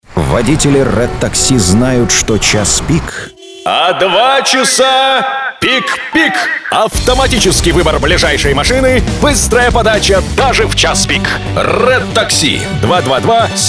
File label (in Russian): diktor